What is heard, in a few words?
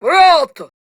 Attack, Grineer Queens Guards, Battle Barks, en; Warframe